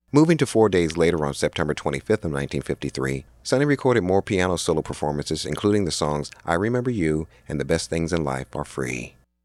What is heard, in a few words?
narration